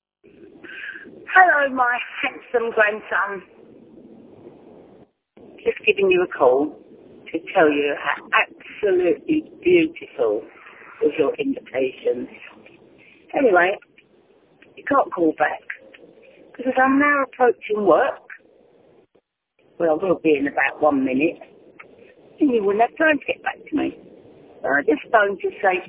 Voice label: voicemail